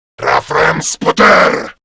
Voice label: Player Spotted, Male